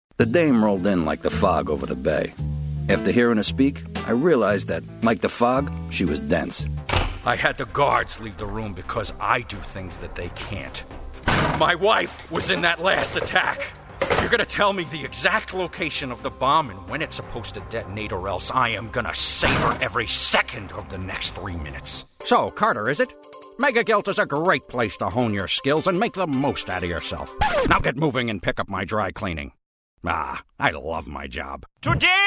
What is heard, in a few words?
Animation